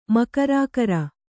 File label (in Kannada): "ಮಕರಾಕರ", Kannada